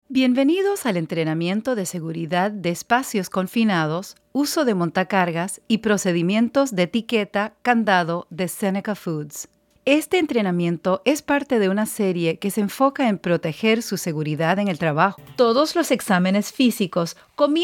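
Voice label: Spanish, narration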